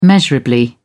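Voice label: "measurably", British English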